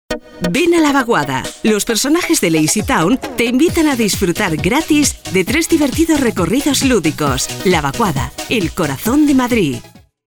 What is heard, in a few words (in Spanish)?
radio castellano